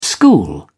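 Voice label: "school", British English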